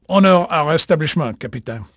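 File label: French male, voice; Pirates Of The Caribbean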